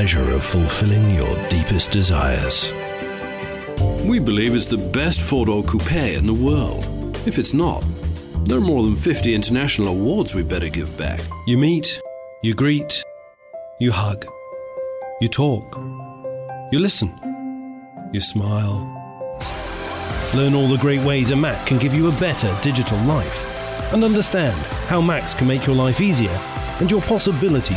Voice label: Commercial Luxury British